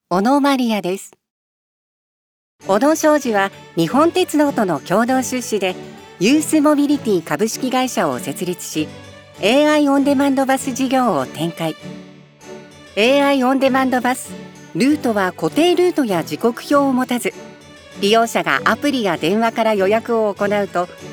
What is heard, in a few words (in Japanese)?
企業VP、説明、明るい; 「AIオンデマンドバス」